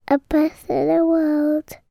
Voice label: 3 year old english boy says the best in the world softly